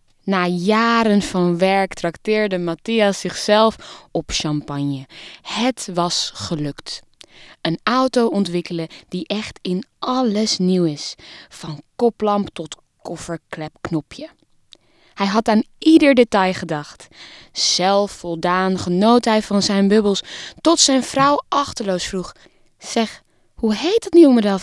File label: NL; Ford